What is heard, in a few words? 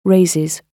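"raises", British English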